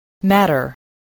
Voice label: "matter", spelling voice

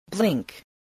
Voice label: "blink", spelling

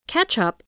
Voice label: "ketchup", English

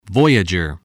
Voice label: "voyager", spelling

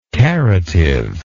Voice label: "caritive", English

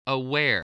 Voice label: "aware", english

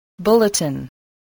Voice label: "bulletin", spelling

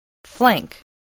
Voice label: "flank", spelling

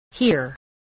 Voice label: "hear", English, spelling

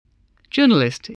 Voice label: "journalist", English

